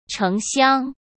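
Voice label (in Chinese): "城乡", Mandarin Chinese; hsk; chinese flashcards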